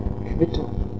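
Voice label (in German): "quittung", German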